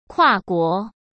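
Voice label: "跨国", Mandarin Chinese; chinese-flashcards; hsk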